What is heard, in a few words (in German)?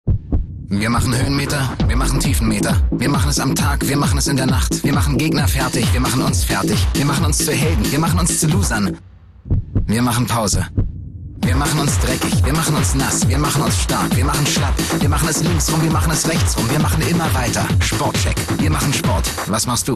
TV Spot TV Werbung; SportScheck